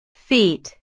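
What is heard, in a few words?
"feet", English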